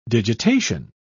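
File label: "digitation", en, British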